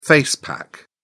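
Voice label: "face pack", British English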